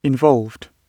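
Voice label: "involved", British English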